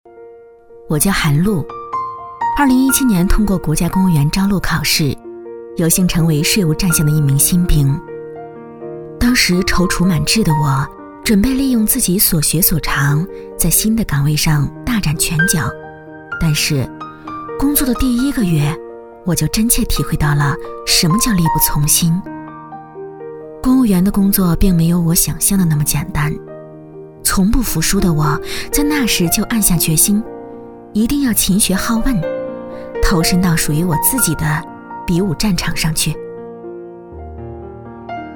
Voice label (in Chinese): 女 人物旁白